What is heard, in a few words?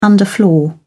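"underfloor", English, British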